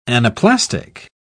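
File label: "anaplastic", British English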